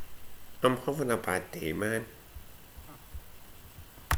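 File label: "huvvin", slang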